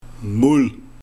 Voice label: "Moel", Trog waar deeg in bereid werd

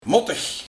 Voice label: "Mottig", Lelijk; 1. Moet ik; 2. Onwel voelen

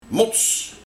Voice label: "Mots", Mep

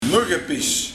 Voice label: "Muggepis", Zeer lichte regenval

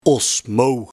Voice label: "Mouw", (Ons) Moeder

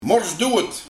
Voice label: "Morsdoewet", Dood

